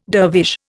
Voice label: "dervish", Indian